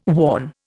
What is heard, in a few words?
"worn", Indian